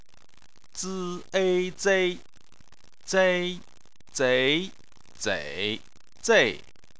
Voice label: "zei", chinese